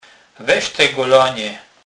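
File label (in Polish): "golen", Kurpie dialect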